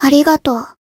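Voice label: Voice healed, Japanese; ruina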